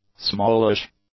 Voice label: "smallish", English; adjectives